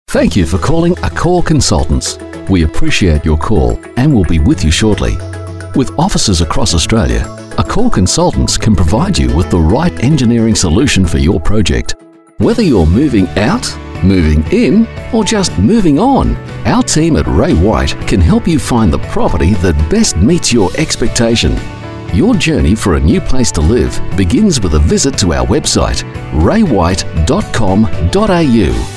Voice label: Male Talent